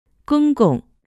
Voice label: "gongong", Mandarin; chinese-language; family-tree